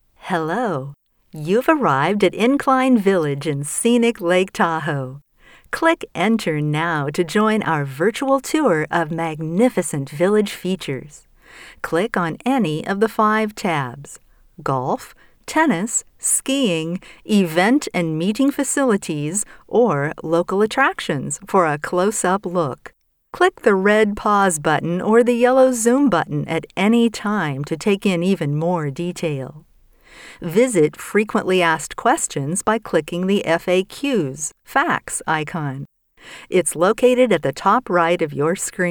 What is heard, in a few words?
eLearning